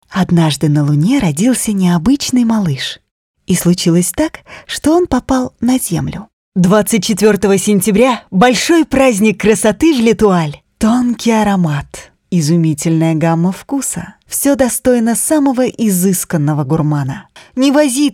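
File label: Russian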